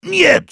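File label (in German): no, German; "Нет!"; Heavy; "Nein!"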